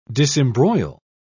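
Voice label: "disembroil", British English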